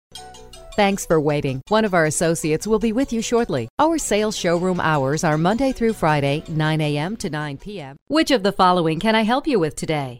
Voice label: On Hold